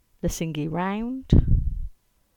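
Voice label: "Lesingey Round", English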